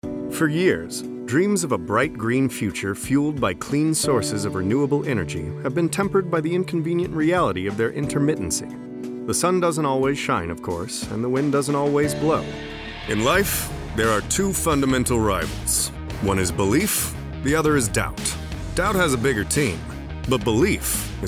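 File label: Narration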